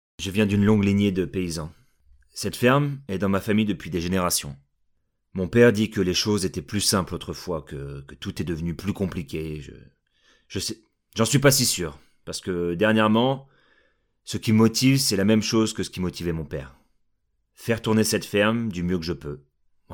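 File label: French, male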